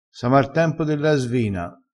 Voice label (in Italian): "svina", crespinese